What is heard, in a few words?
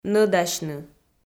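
"нӧдасьны", Komi, female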